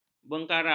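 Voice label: "bengkarak", Malay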